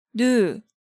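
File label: "Nugu", Hangeul sounds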